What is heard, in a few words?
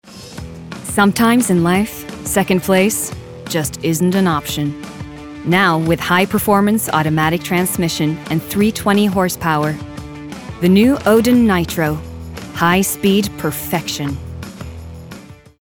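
us commercial, American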